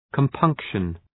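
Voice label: "compunction", English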